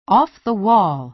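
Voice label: "off-the-wall", American English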